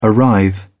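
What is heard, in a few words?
"arrive", British English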